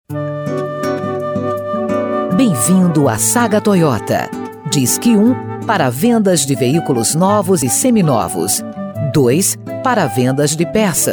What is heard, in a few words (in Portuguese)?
Atendimento Diurno Voz BR; Saga Toyota